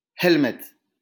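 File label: "helmet", English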